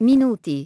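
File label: "minutes", English; iOS 4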